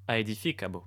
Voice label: male build, latin

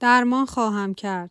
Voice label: female heal, persian

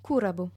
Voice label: female heal, latin

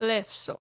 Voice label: female fish, greek

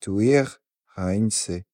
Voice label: civ male repair, napatan

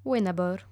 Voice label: hunt, romans female

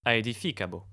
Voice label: build, male

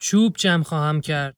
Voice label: civ male wood, persian

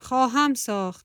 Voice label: civ female repair, persian

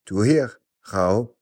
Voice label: civ male attack, napatan

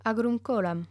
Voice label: work land, voice romans female

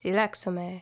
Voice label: civ female gather together, hellenes

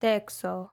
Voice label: female build, greek

